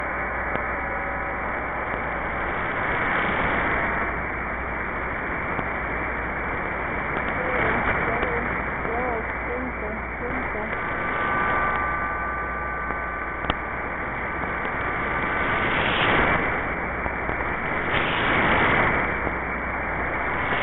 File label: Spanish hybrid Number Station 13435 kHz; web SDR; dash number stations recordings; dash recordings from web sdr